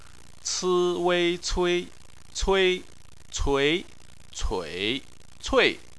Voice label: "cui", chinese